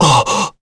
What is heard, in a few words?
Vox, en; kingsraid